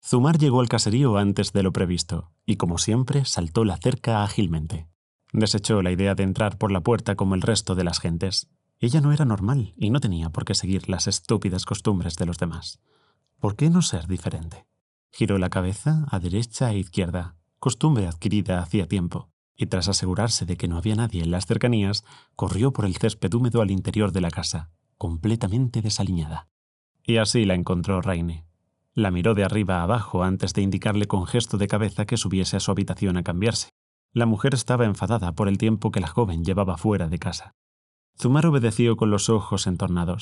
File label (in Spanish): LOC Narracion